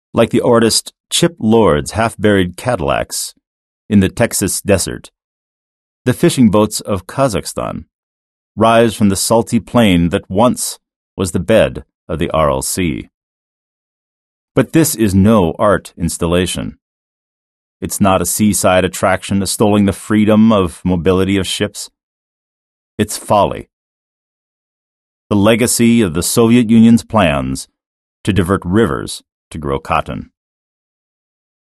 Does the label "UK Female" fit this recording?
No